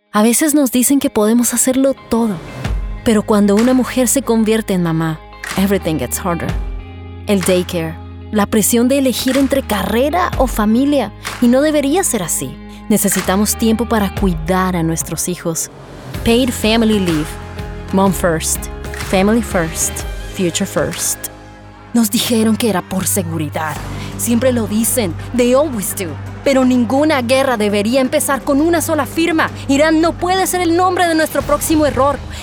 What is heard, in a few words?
voiceover foreign language spanish; new york